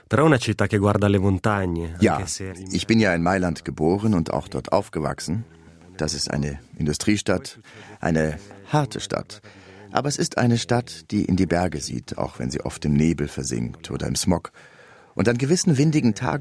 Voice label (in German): VOICEOVER; Beste Bu cher SRF1